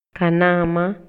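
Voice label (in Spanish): Maya, Yucatán, male; POSESIÓN; INALI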